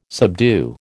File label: "subdue", American